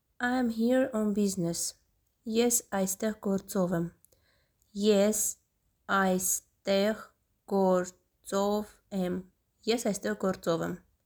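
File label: English I am here on business Armenian Es aystegh gortsovem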